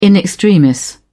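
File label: "in extremis", British English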